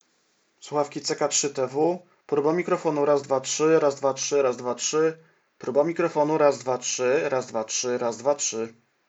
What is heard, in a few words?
mic test